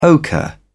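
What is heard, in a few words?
"ochre", English, British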